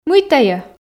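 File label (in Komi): "мый тайӧ", Komi, female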